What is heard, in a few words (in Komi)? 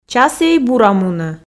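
часіой бура мунӧ, Komi, female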